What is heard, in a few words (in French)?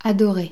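"adoré", French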